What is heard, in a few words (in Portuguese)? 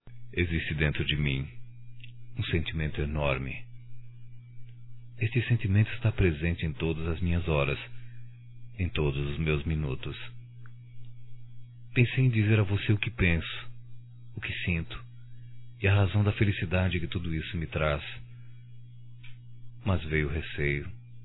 voz (M); Telemensagens; Só Voz; ReconciliaþÒo; masc; Telemensagens 2017